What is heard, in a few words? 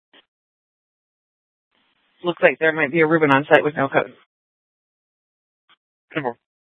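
police dispatch, Scottsdale PD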